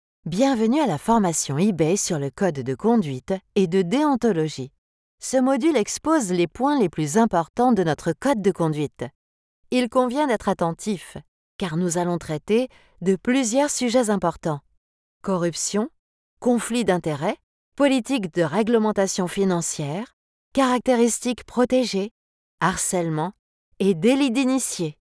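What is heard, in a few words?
French eLearning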